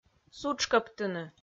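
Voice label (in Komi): "сутшкӧбтыны", Komi, female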